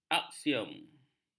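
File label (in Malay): "aksiom", Malay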